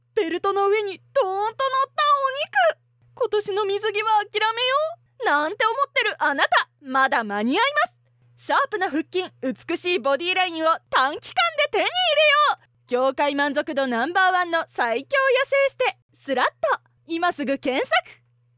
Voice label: ナレーションサンプル 痩せエステCM

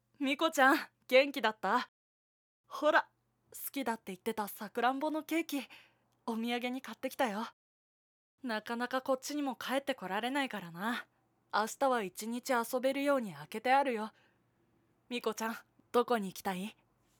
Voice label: 親戚の優しいお兄さん

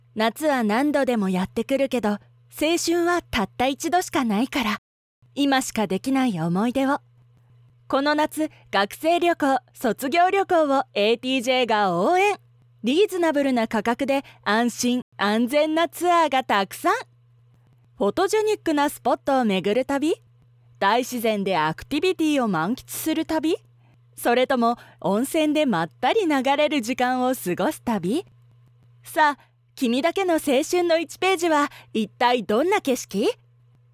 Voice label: ナレーションサンプル 学生旅行CM

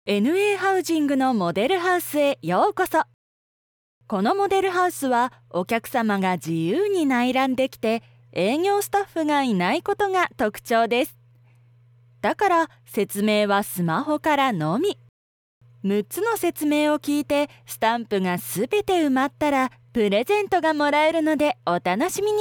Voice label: ナレーションサンプル モデルハウス案内

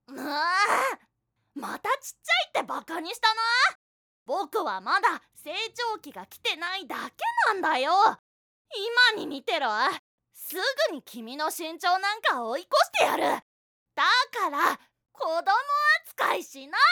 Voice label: 身長が気になるボクっこ

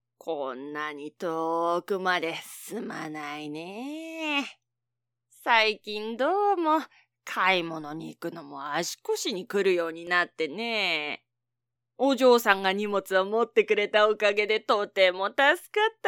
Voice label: ボイスサンプル女性 買い物帰りのおばあさん

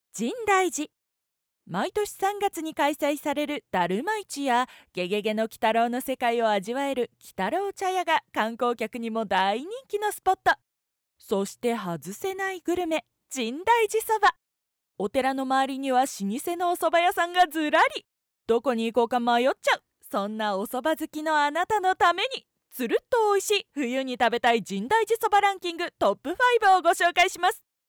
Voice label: ナレーションサンプル 深大寺そば

